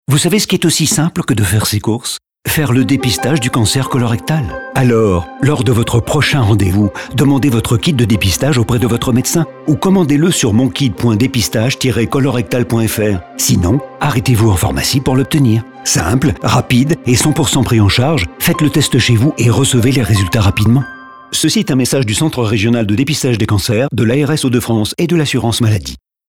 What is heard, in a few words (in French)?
Radio; Depistage Cancer Colo